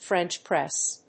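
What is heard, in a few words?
"French press", English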